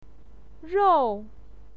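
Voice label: "rou4", Chinese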